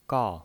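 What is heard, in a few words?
"gao", Mandarin Chinese, fourth tone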